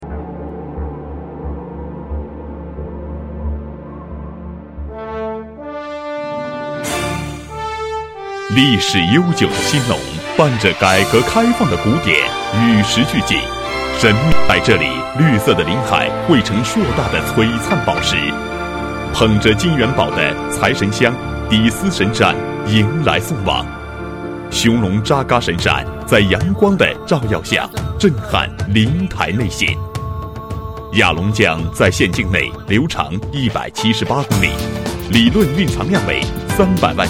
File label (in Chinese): 男7 专题 城市招商宣传片, 国语男声配音